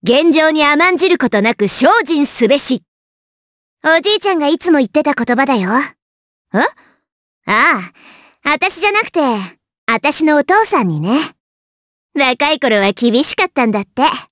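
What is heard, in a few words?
vox dialogue, voices, Japanese; kingsraid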